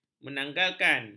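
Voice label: "menanggalkan", Malay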